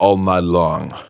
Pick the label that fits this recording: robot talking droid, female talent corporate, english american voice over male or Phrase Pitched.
Phrase Pitched